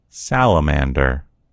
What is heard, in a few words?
"salamander", en US; gcompris